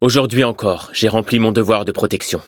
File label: victory, fr; cookierunwiki